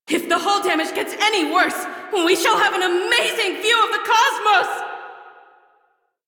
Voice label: Crew Ship Low, Female; Railjack Crew; Cephalon Suda